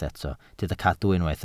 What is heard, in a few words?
"cadw", Welsh